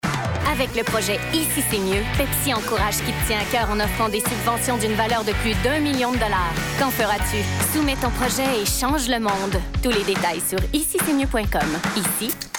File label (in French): annonceur; pepsi